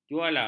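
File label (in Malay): "juala", Malay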